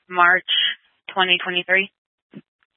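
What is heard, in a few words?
County Fire, Scanner audio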